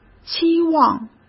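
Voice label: "期望", Mandarin Chinese; hsk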